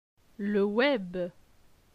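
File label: "Le web", French; phonetique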